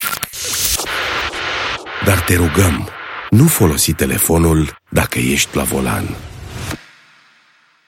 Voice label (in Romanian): Safety Jingle Te rugam nu folosi telefonul la volan